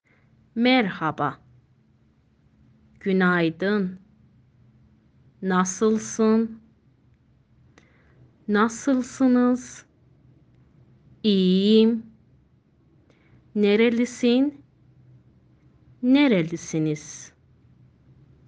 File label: turkish